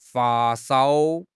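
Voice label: "fa1 shao1", Mandarin Chinese; HSK3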